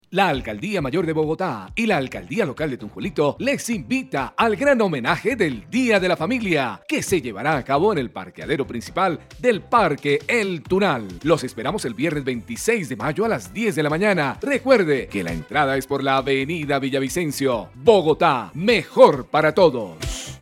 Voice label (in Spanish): cuña; tunjuelo